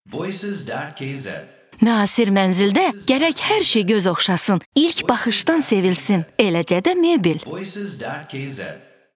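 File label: Voice Azerbaijani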